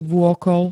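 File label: "vôkol", Slovak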